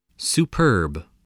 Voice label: "superb", spelling